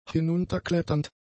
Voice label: "hinunterkletternd", German; adjectives